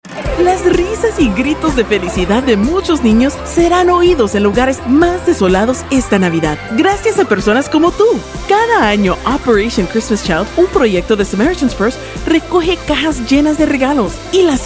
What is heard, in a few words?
spanish